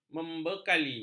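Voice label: "membekali", Malay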